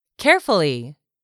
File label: "CAREFULLY", english; 3000 words